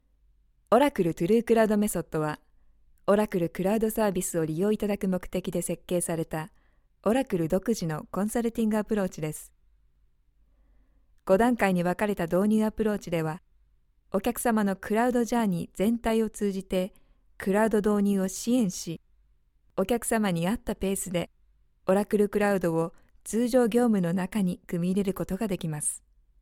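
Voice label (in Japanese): Japanese, Corporate; voice demos; GoLocalise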